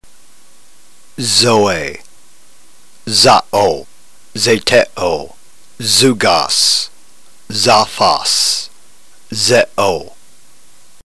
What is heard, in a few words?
drill, ntgreek